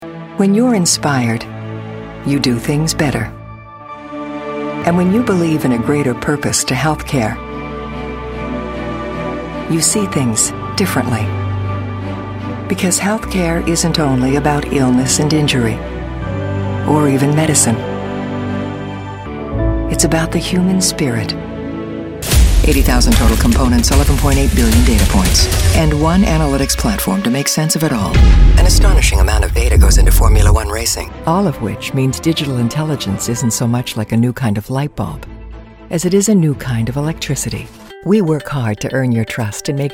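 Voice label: commercial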